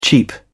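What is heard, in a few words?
"cheep", British English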